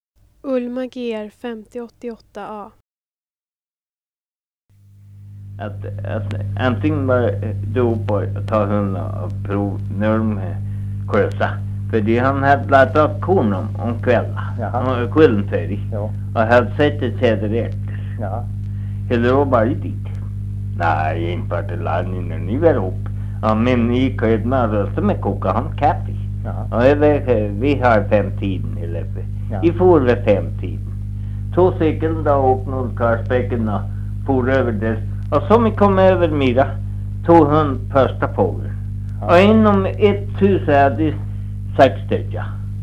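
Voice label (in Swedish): Norsjö Västerbotten, Svenska sagor, dialekter; Folkminnen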